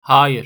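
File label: "hayır", Turkish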